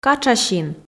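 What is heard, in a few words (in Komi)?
"катшасин", Komi, female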